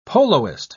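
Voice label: "poloist", British English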